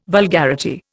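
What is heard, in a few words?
"vulgarity", Indian English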